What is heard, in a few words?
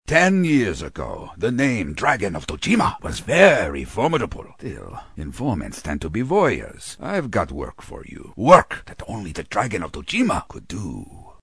Power Broker, Neutral; animation